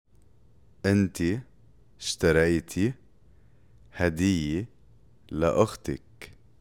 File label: Past tense Arabic